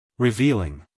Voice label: "revealing" us male; everyone-can-use-english